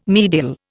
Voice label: "medial", Indian